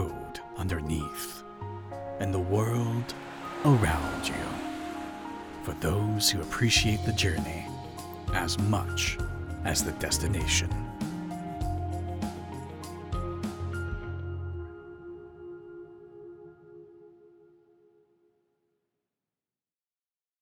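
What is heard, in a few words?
Commercial Sample Luxury Car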